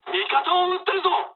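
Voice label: player friendly fire mood low, speech japanese; War Thunder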